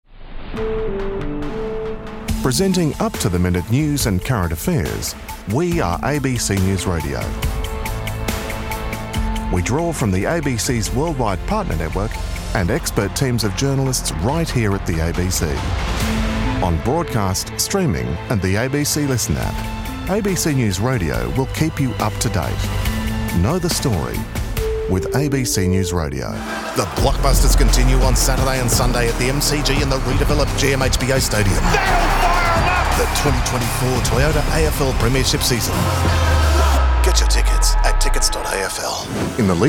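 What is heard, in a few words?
Promos, Male voice over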